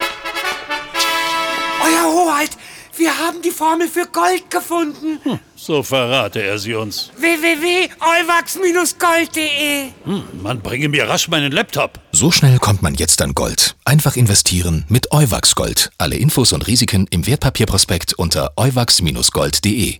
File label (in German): radiospot; euwax gold alchimist